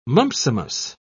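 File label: "mumpsimus", British English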